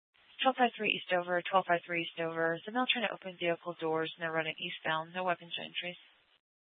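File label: police department dispatch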